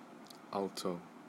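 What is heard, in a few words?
"alto", MYS, male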